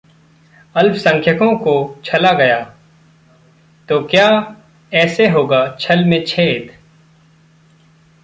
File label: Hindi